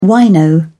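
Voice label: "wino", British English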